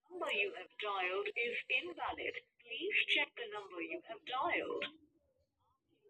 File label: call recording